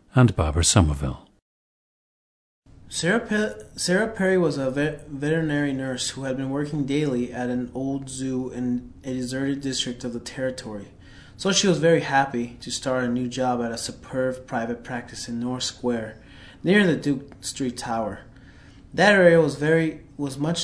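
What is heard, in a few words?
arizona, International Dialects of English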